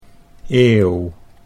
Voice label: "eeuw", Dutch